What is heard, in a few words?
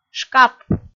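"шкап", Komi, female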